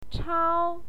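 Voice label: "chao", Mandarin Chinese, first tone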